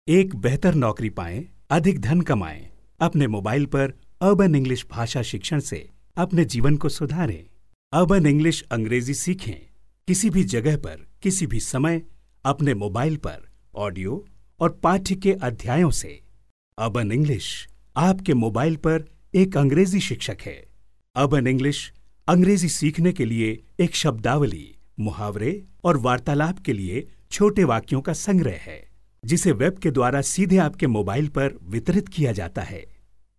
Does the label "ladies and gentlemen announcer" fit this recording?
No